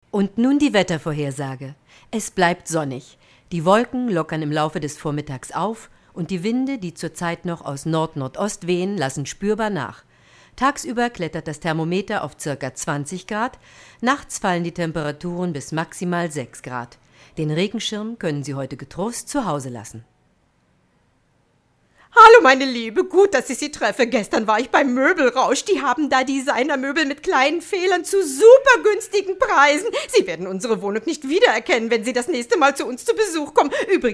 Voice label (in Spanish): locutora alemana